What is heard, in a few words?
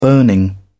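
"burning", British English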